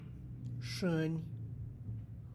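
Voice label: saliva, Tlacochahuaya Zapotec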